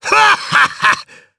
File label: Happy, jp; Phillop; kingsraid